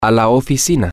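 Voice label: to the office, Spanish, male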